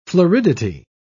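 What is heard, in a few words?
"floridity", British English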